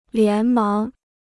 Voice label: "连忙", Chinese